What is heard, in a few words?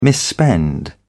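"misspend", British English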